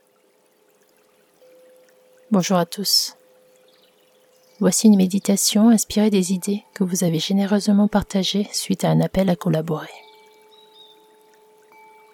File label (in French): extrait meditation co creee balade enchantee en foret